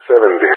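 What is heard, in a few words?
"70", English speech; Numbers; Allied Flight; DCS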